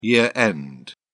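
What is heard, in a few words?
"year-end", British English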